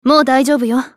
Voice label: スカウト女 リサシテーション

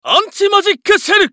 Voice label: ウィザード男･アンチマジックシェル

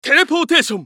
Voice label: ウィザード男 テレポーテーション

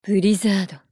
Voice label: ウォーロック女 ブリザード

